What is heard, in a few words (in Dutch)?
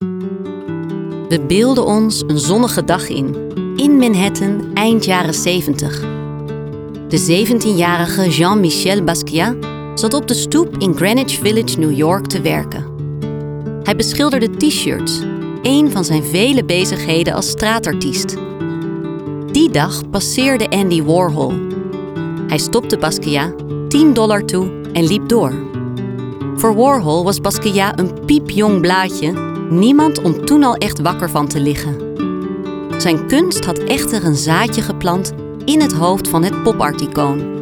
Narratief Corporate